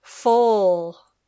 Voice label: "full", English

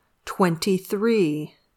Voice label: "twenty-three", English

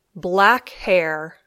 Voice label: "black hair", English

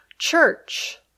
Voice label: "church", English

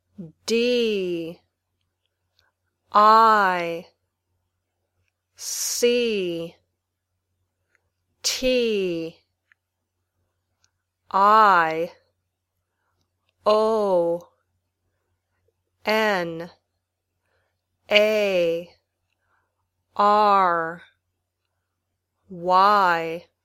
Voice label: Spelling, Basic English; Spell (by saying the letters in English) some words from the 1000 most common words list.; Beginning Level

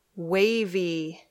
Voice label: "wavy", English

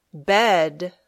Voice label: "bed", English

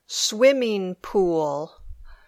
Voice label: "swimming pool", English

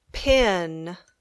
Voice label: "pin", English

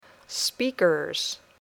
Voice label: "speakers", English; Passport to English

